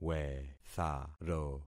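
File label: "wetharo", concatenated syllables; Affixing experiment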